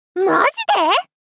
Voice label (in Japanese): まじで～！? 少女×中音域